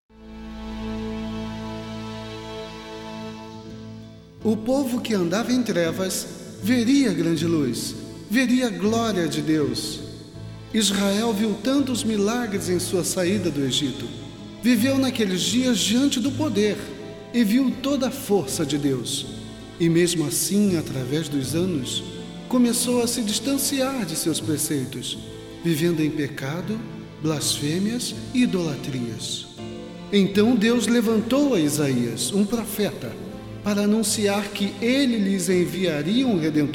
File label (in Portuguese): Narracao